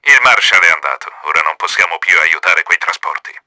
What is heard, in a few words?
ANNOYED, Italian; KRAMER; Battle Engine Aquila; XBOX